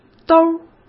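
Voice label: "兜儿" Mandarin Chinese; hsk; chinese-flashcards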